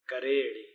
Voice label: "kareeRi", Palula